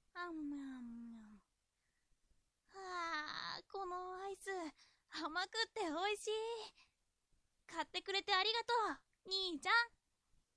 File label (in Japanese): dansei